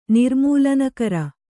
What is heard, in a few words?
"ನಿರ್ಮೂಲನಕರ", Kannada